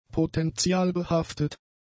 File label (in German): "potentialbehaftet", German; adjectives